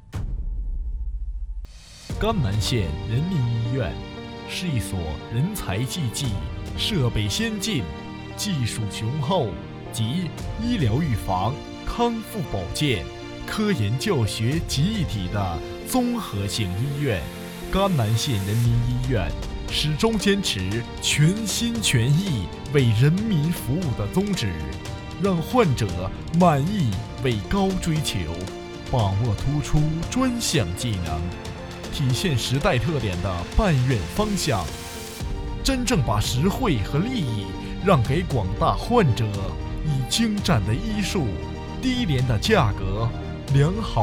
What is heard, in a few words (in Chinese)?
县人民医院宣传